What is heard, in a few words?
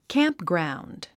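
"campground", English word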